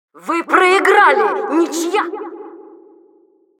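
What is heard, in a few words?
Announcer failed stalemate, russian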